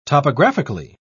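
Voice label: "topographically", British English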